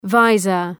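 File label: "visor", English word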